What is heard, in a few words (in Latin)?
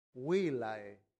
"villae", latin; santillana